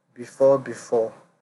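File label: "before before", Nigerian Pidgin, male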